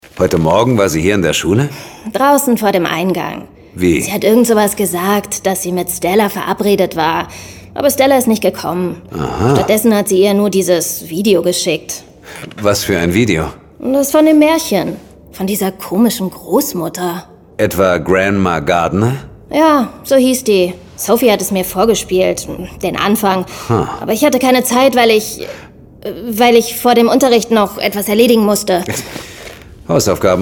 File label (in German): hoerspiel; john sinclair